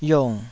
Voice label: "yong3", taiwanese